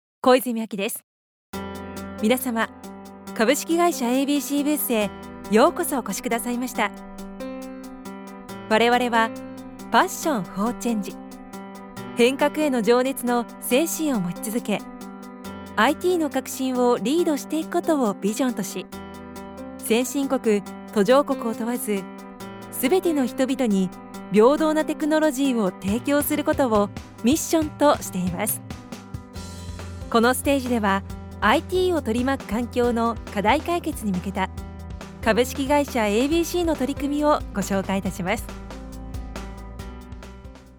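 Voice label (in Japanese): 展示会, ナレーター MC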